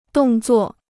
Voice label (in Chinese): "动作", Chinese